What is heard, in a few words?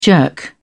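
"jerk off", British English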